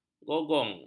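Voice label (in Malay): "golong", Malay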